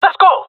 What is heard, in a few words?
request move, jungle male; vo; agents; Source Model Packs; Counter Strike Model Pack; CS2